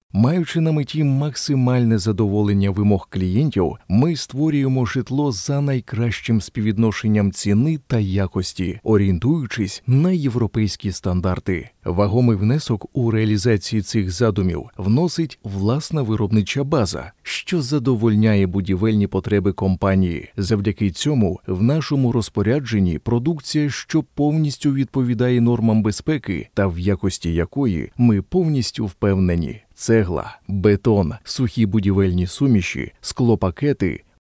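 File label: Ukrainian, male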